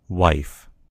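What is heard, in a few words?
"wife", American English; gcompris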